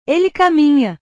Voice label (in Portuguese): "ele caminha", portugues